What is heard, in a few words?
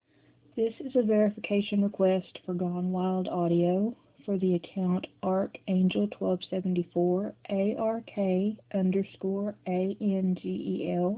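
Verification, Female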